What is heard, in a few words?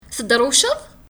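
Kabyle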